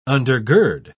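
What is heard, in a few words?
"undergird", English, British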